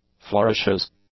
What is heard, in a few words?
"flourishes", English; nouns